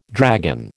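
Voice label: "dragon", American English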